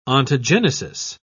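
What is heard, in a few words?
"ontogenesis", British English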